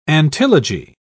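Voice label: "antilogy", British English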